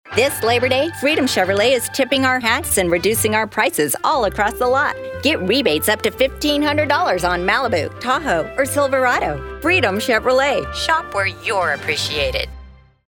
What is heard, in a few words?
announcer; Freedom Chevrolet